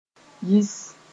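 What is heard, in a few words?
"yɩs", Mossi; quite the opposite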